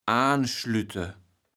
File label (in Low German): "aanschliete", kaevels platt